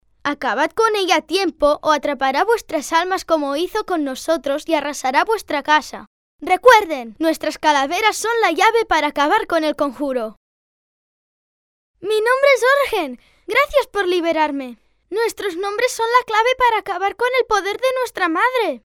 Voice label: locutor nino, child Spanish voiceover, Spanish kid voice, 12 years; locutor infantil, child voice over; Spanish child voice actor